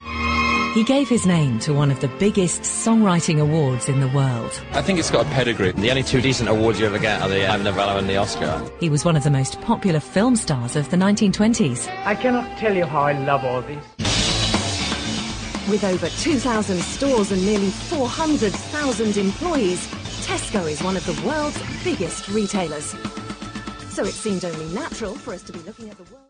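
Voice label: British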